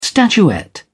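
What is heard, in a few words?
"statuette", British English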